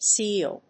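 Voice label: "sea-eel", English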